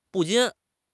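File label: "不禁", Chinese; HSK6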